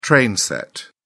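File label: "train set", British English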